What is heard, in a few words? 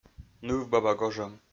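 "нывбаба гожӧм", Komi, male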